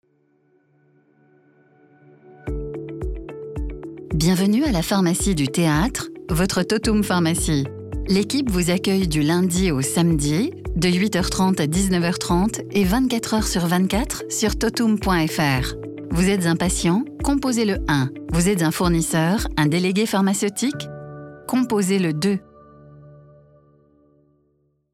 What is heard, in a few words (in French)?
attente telephonique pharmacie voix off; totum